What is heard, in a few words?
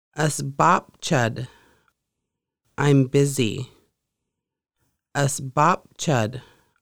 I am busy, conversational Lushootseed; Conversational Lushootseed: Lessons 1-5